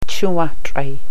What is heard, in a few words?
Wind (east), Gwich'in